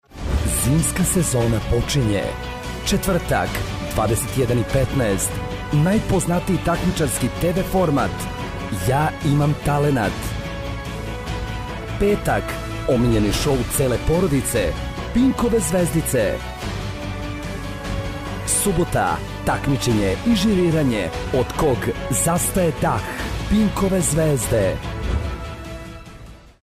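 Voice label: serbian male commercial; voice demos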